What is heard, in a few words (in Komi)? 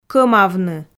"кӧмавны" Komi